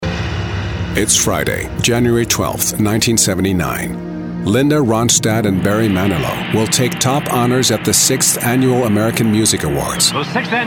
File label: en straightforward